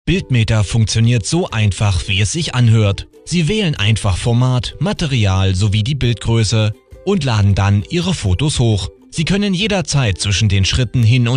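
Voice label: German, male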